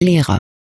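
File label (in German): "Lehrer", German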